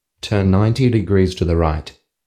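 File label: turn 90, Australian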